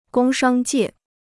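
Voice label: "工商界", Chinese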